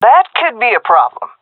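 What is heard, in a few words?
disagree, female; vo; Counter Strike Model Pack; CS:GO; seal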